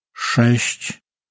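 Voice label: "shesht", Polish, male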